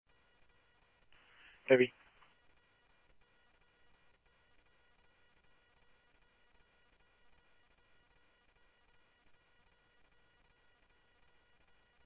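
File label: Mesa police department, scanner audio trunk; Mesa Simulcast; MESA PD 1 Fiesta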